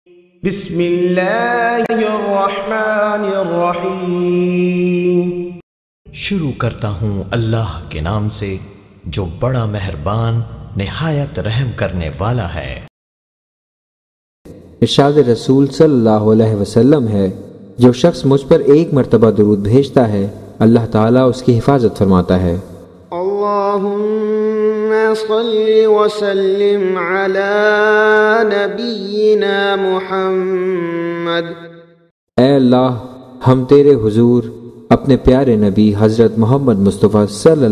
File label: Durood Rehmat With Urdu Translation; Masnoon Duain